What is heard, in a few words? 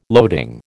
"loading", American